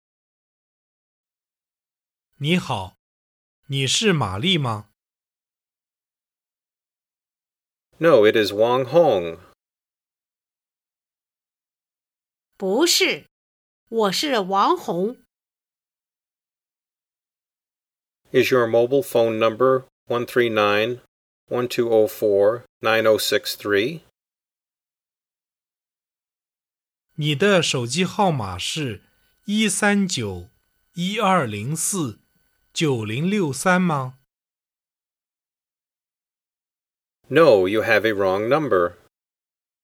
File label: Dialog